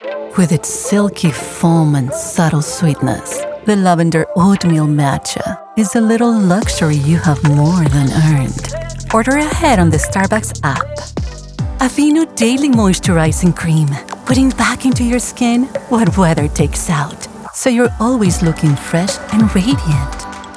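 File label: English, Bilingual Spanish voice artist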